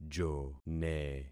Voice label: "jone", concat syllables; Affixing experiment